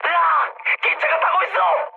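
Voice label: Korean on fire mood med; speech; War Thunder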